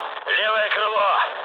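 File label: Russian left wing mood low, speech; War Thunder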